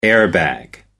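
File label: "air bag", British English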